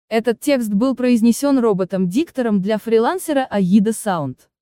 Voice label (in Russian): робот женщина голос